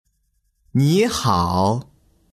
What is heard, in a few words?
say hello in chinese